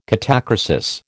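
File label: "catachresis", American English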